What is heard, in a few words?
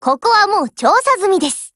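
OPERATION OVER Japanese, Voice; GF Alarm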